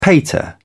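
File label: "pater", British English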